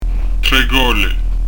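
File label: "3 gole", Prononciation du corse; trè g'òle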